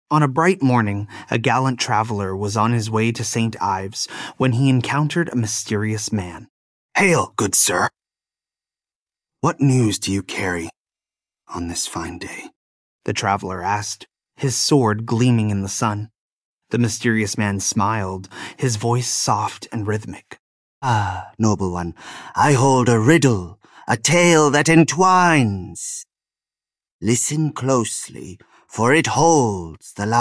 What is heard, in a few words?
male us; As I Was Going to St. Ives